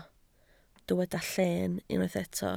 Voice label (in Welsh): "lleen" Welsh